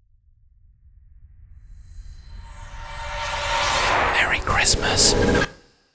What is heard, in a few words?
Merry Christmas whispered